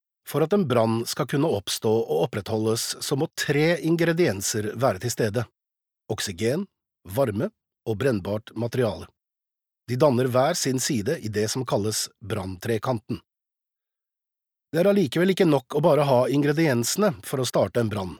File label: Norwegian e-learning, norwegian male